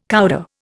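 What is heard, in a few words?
"coudé", Indian English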